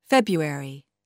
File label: "february", English